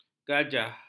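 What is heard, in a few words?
"gajah", Malay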